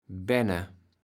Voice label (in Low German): "benne", kaevels platt